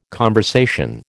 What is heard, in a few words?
"conversation", American